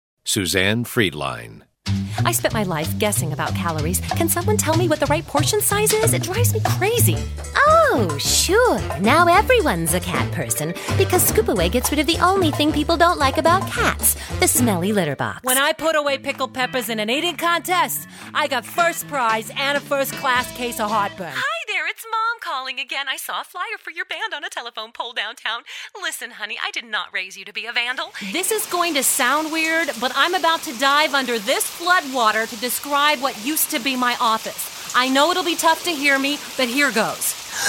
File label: VO DEMOS - Women